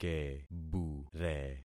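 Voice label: "kebure", concatenated syllables; Affixing experiment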